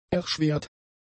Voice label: "erschwert", German; adjectives